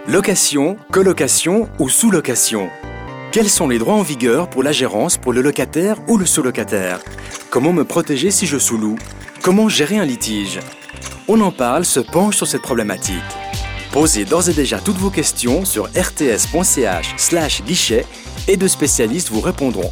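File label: Swiss French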